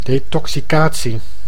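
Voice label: "detoxicatie", Dutch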